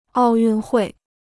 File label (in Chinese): "奥运会", Chinese